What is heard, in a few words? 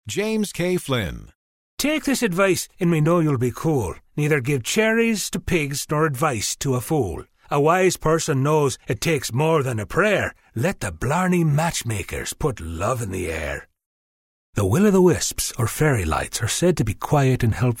IRISH